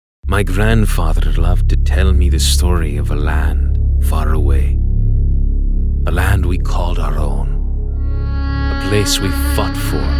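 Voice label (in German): Dokumentarfilm Erzahler Jugendfrei, English, male; NATIVE PROFISPRECHER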